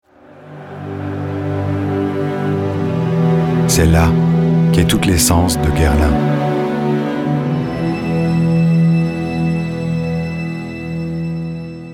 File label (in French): Corporate Institutionnel, voix off